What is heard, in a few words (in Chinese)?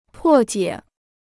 "破解", Chinese